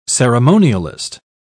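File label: "ceremonialist", British English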